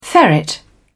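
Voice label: "ferret", English, British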